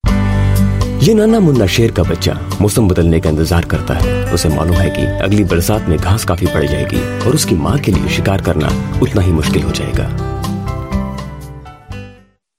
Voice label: Doco Hindi, India Male

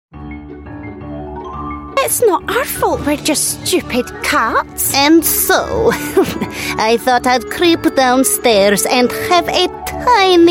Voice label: UK English Animation

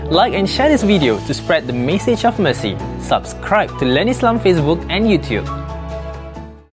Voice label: English; Muslimsg 2018

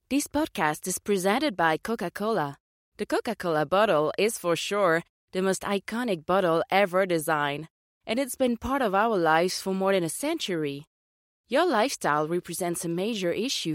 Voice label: French Podcast; CocaCola

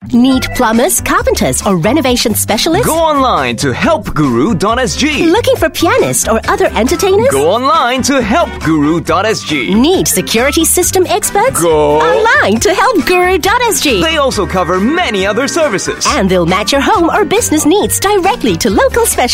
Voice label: Asian English; HelpGuru

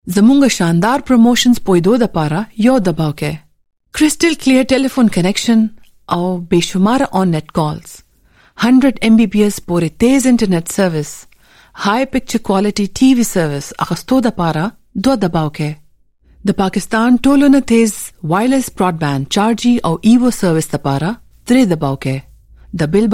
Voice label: Pashto Female

